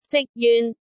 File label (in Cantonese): "zik1-jyun3", cantonese